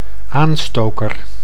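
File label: "aanstoker", Dutch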